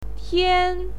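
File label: "tian", Mandarin Chinese, first tone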